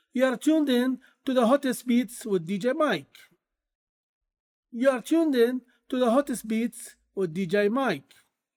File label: Dj English